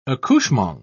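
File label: "accouchement", British English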